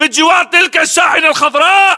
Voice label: landmark near vangreen, localized english battlechatter